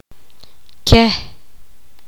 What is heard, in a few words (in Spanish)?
poqomam ellos